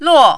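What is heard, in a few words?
"luo", Mandarin, fourth tone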